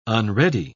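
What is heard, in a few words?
"unready", British English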